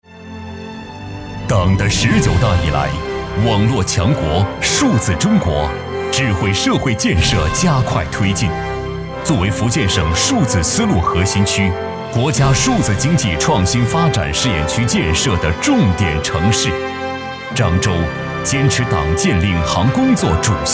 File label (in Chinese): 男 大气沉稳 城市专题